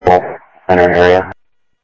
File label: South Scottsdale Sheriff, scanner audio